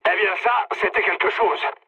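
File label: French trick mood high; War Thunder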